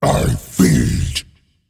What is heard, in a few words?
Male Demon Attack